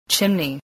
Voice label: "chimney", spelling